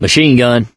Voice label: take submachine gun, survivor voice; source engine; left 4 dead 2